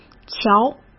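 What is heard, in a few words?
"瞧", Mandarin Chinese; chinese-flashcards; hsk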